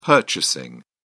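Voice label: "purchasing", British English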